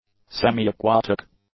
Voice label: "semi-aquatic", English; adjectives